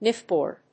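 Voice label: "knifeboard", English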